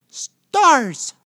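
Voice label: "stars", English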